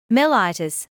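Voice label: "mellitus", British English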